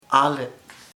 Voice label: new, chamacoco; nuevo

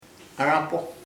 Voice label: where, chamacoco; donde

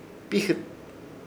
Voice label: "Ijɨt", Ishir Chamacoco; hijos

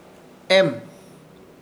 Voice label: "Ehm", Ishir Chamacoco; alzar una cosa

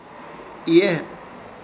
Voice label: "Hiiye", Ishir Chamacoco

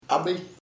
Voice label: husband, chamacoco; esposo

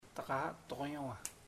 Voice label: I will go w you, chamacoco; Puerto Diana

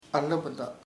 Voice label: flesh (fruit), chamacoco; pulpa

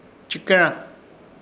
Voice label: "Chɨkẽra", Ishir Chamacoco; sonar

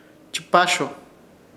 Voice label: "Chɨpaâsho", Ishir Chamacoco; doblar o hacer dormir

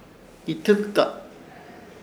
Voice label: "Itɨlta", Ishir Chamacoco; mal olor

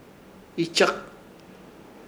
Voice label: "Ichak", Ishir Chamacoco; intestine

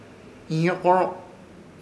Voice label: "Ihyorõ", Ishir Chamacoco; palntas

